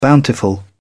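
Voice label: "bountiful", British English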